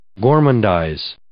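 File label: "gormandize", English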